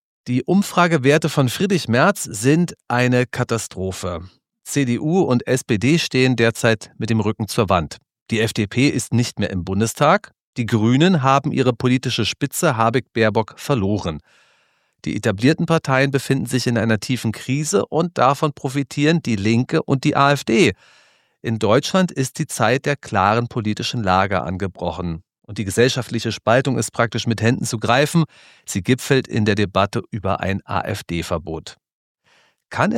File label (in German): Interview; Kontrafunk Aktuell